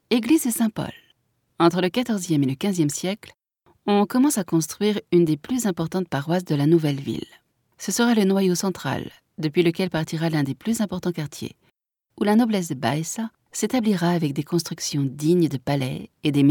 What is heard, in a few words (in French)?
audioguia, frances; audioguia baeza